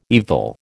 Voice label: "evil", American

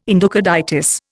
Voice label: "endocarditis", Indian

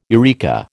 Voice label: "eureka", American

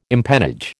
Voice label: "empennage", American

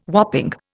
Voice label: "whopping", Indian

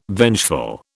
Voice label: "vengeful", British

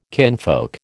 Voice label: "kinfolk", American

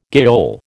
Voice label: "gaol", American

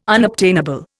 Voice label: "unobtainable", Indian

